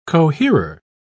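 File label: "coherer", British English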